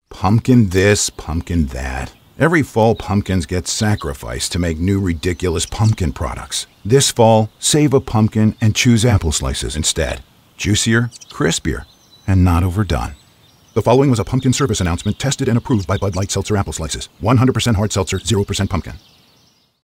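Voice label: English US, TV Werbespots Online Werbespots Breites Publikum; NATIVE PROFISPRECHER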